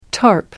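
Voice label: "tarp", British English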